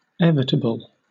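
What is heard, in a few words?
"evitable", British English; pronunciations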